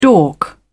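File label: "dork", British English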